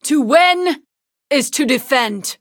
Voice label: battle en; cookie run